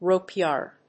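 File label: "rope yard", English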